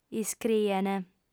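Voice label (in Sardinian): "iscrient", Sardinian, female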